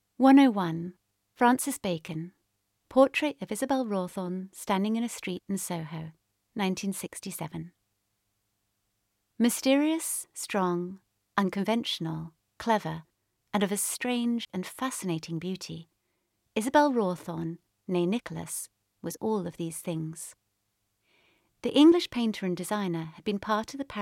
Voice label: Audioguide museum exhibition; Francis Bacon